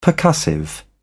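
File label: "percussive", British English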